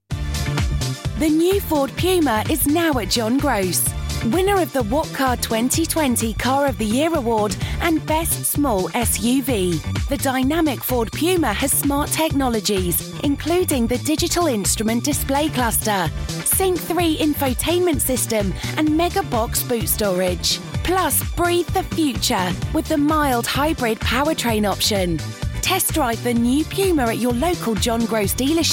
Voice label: english british automotive